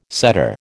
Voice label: "setter", American

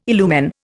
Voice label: "illumine", Indian

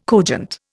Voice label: "cogent", Indian

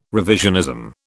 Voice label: "revisionism", British

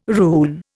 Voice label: "rune", Indian

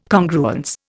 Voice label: "Congruence", Indian

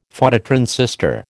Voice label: "Phototransistor", American

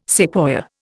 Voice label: "sequoia", Indian

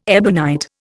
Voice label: "ebonite", Indian

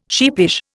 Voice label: "sheepish", Indian

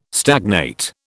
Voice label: "stagnate", British

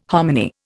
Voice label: "hominy", Indian

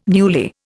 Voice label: "newly", Indian

